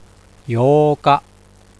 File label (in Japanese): "yooka", Japanese; calendar